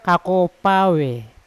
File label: "kakopaure", Yawa; mud